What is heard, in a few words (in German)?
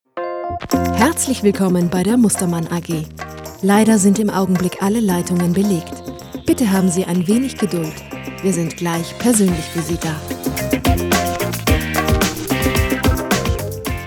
Telefonansage